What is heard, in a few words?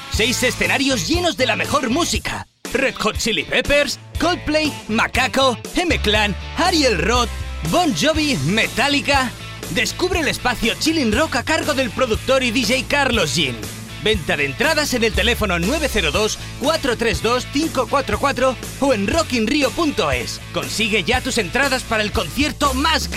male spanish musical festival comercial; ideasonora